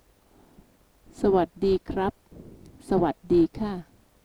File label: "Sawadee", Thai